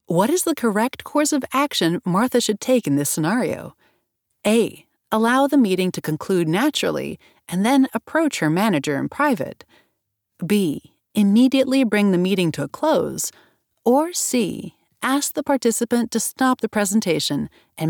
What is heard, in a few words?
eLearning